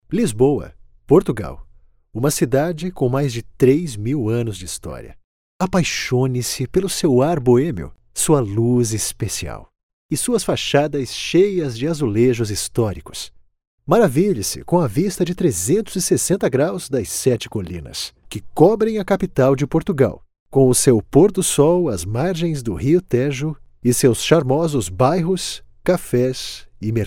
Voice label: portuguese brazilian commercial